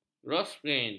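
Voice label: "grosgrain", Malay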